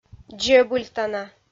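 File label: "дзебыльтана", Komi, female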